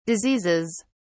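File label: "diseases", British English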